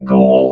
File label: "goal", robot vox